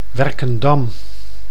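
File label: "Werkendam", Dutch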